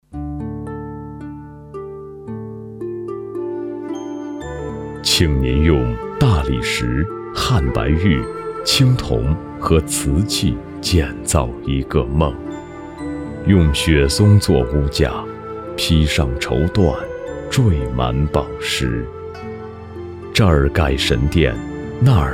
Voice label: 男, 记录; 圆明园开篇祭

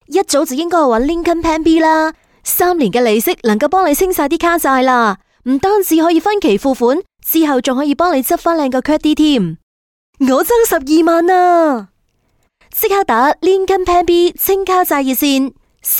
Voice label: 粤女, 粤语普通广告; 林肯计划

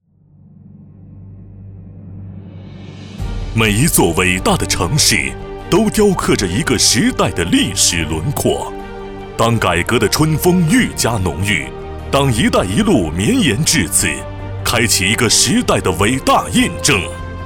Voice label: 男 专题 浑厚宣传; 温州经济技术开发区规划馆

